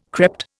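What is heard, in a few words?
"crept", Indian English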